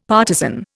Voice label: "partizan", Indian; Dictionary